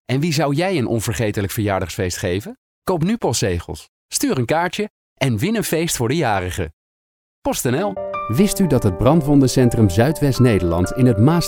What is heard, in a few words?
corporate